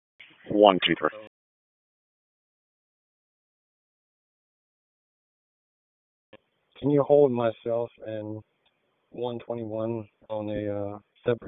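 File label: Police District Dispatch, p25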